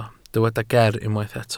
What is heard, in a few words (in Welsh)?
"geer", Welsh